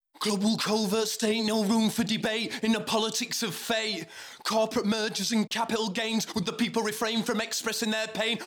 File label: Vox No Dist